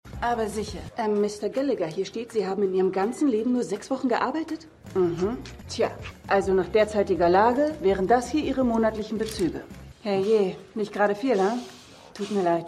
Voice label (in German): Schalterbeamtin, Stimmproben; Shameless